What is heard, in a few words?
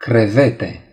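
"shrimp", Romanian; gcompris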